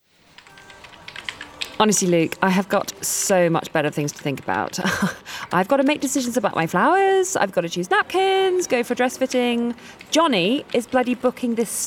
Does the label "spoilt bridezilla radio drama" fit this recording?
Yes